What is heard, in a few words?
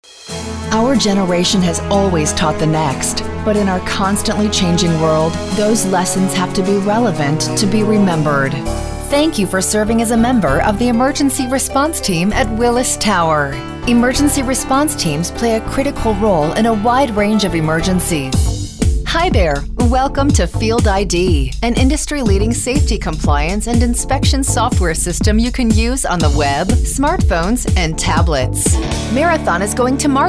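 narration, Voiceover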